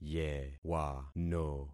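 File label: "yewano", concatenated syllables; Affixing experiment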